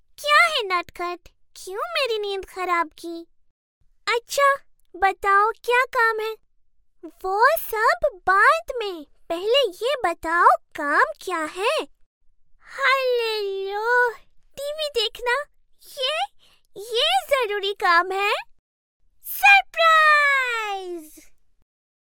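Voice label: Hindi, Character; voice demos; GoLocalise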